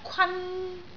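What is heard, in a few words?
"kwan1", Cantonese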